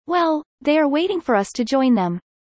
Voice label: fast; studying abroad